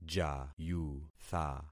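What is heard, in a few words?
"jayutha", concatenated syllables; Affixing experiment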